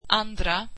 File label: swedish second